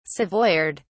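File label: "savoyard", English, British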